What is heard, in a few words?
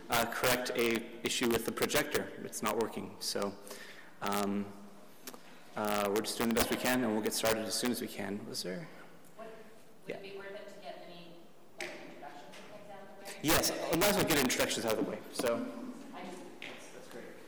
panel narrative of exhibits audio; rbms 2017